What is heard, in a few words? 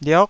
"liok9", Taiwanese syllable